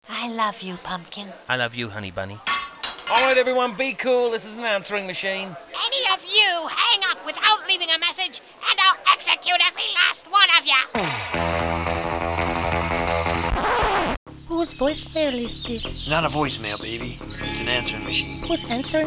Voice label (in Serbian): Telefonska sekretarica